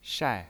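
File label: "shai", Mandarin Chinese pinyin, fourth tone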